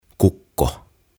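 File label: "Kukko", "Cockerel", Finnish, English; OTAVA KONSERNI; tuhatsanaa; aantamisohjeet suomeksi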